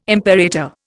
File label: "imperator", Indian